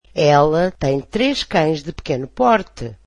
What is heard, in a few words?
portuguese she has three small dogs